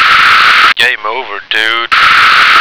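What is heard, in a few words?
"game over", American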